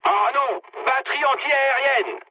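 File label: damaged aa, mood high, French; War Thunder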